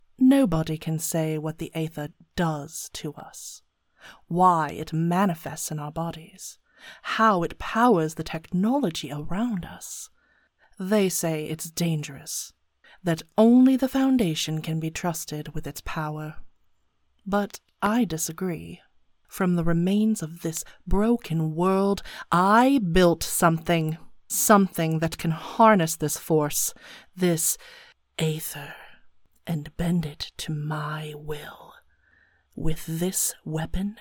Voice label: video game trailer scifi fantasy; American voice actress

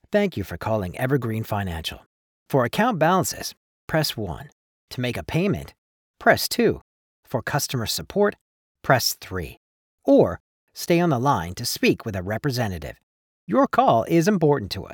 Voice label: Financial IVR; Evergreen

